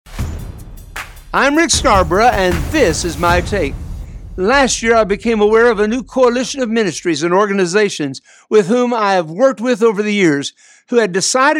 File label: Radio Spot; Dr. Rick Scarborough's quick one minute "takes"; Remnant Alliance